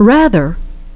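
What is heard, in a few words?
"rather", English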